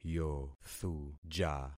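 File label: "yothuja", concatenated syllables; Affixing experiment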